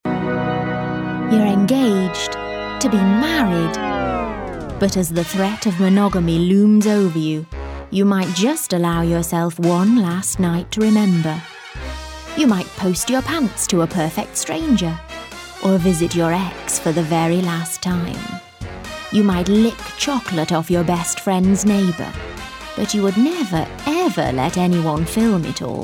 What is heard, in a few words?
english british promos